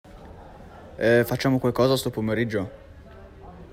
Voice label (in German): Italienisch Machen heute Nachmittag etwas zusammen